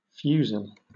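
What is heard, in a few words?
"fusil", British English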